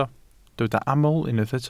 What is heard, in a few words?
"aml", Welsh